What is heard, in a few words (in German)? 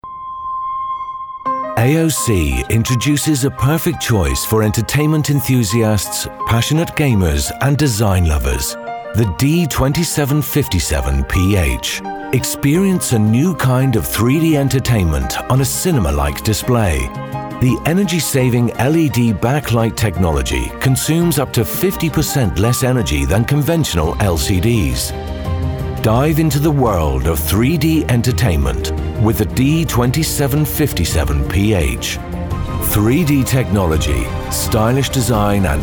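UK English Werbung Neutral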